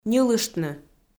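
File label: "ньылыштны", Komi, female; audio dict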